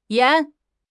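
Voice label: "yan2", Mandarin Chinese; HSK4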